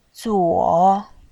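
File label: "zuo" Mandarin Chinese, third tone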